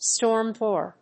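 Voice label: "storm door", English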